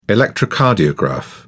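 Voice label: "electrocardiograph", British English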